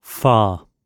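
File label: "far" spoken, British English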